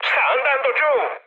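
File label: Italian aircraft destroyed mood high, speech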